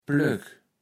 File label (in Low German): "Plöck", kaevels platt